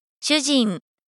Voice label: "shujin", Japanese pronunciation